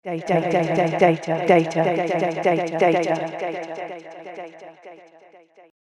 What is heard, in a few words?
Female Voice Delay; Recorded Voice Sound Effects; human voice sound effect; spoken word